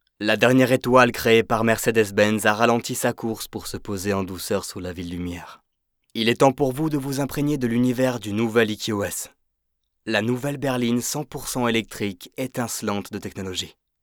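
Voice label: French, male, commercial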